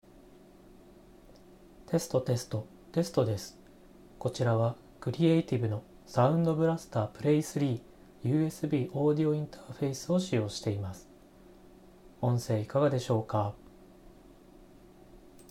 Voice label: creative sound blaster play3 high